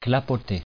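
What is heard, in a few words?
"clapoter", French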